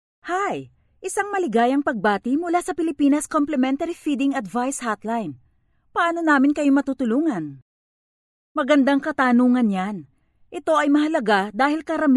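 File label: Tagalog, female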